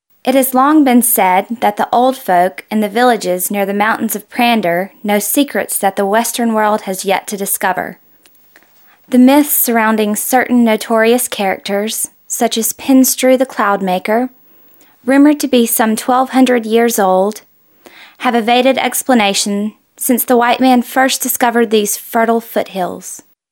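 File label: F EN documentary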